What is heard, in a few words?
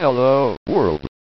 voice 6bit; 6bitリニアPCM